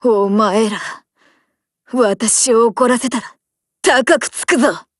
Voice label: BREAK JP; GF Alarm; M1928A1